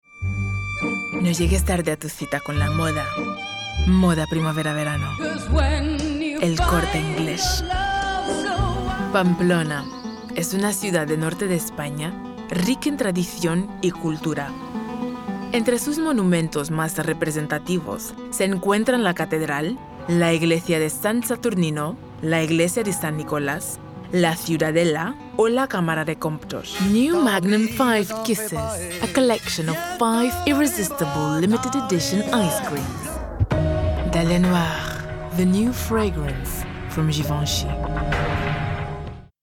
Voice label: spanish